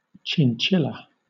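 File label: "chinchilla", British English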